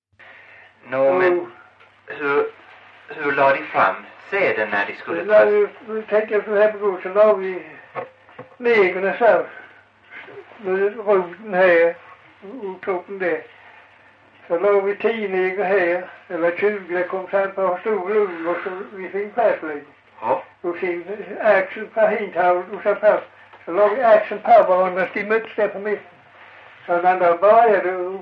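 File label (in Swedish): Farstorp Skåne, dialekter; Folkminnen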